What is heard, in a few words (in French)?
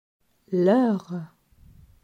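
"Leur", French; grammaire; adjectifs possessifs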